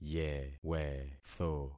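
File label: "yewetho", concatenated syllables; Affixing experiment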